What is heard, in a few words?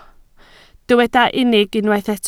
"unig", Welsh